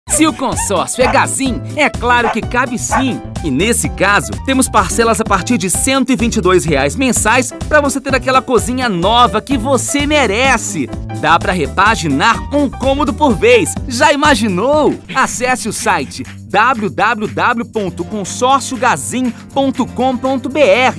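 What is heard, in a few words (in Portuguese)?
Locução, Voz Padrão - Grave, Português - Brasileiro, Masculino; Locução para Jornal O GLOBO - Informação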